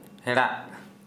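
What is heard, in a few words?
Santali, male, brown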